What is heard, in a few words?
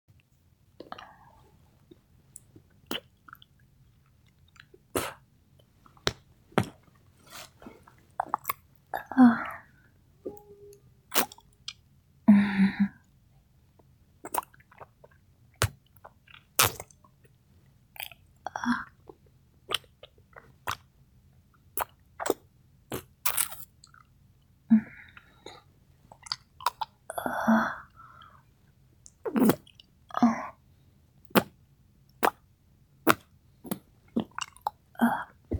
My mouth got bored, blow job, dirty talk, sucking, wet noises